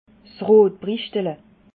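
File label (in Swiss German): "rotbrischtle", Alsatian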